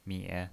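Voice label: "mie", pinyin voice, Mandarin Chinese, third tone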